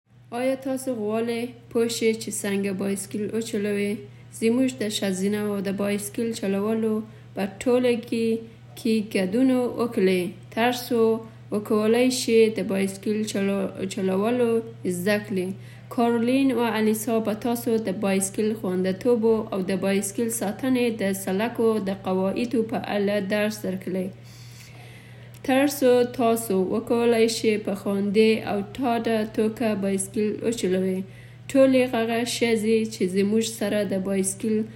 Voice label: Pashto Sisters Cycling